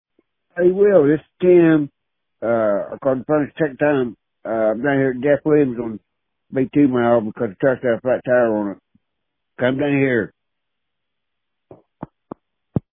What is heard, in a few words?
Voicemail